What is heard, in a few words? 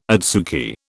"adsuki", British